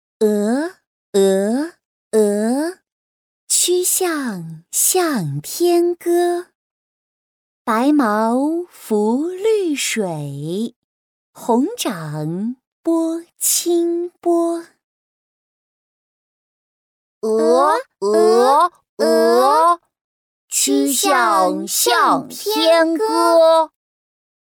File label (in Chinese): 女 儿童唐诗